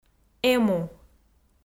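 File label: "эму", Komi, female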